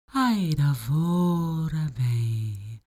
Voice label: human vocal female talk elfish; smartsoundfx